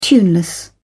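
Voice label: "tuneless", British English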